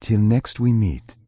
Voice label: Night Elf Male Standard Farewell